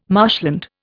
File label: "marshland", Indian